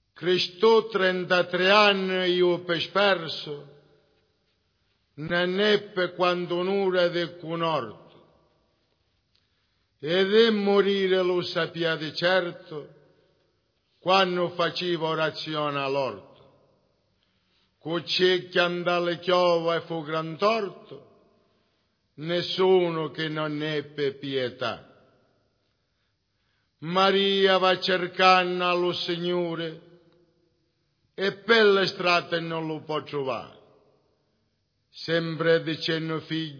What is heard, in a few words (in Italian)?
passione e morti recitato